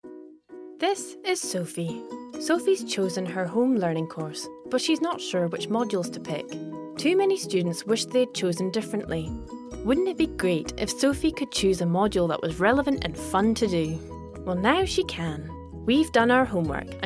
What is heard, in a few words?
explainer video, Scottish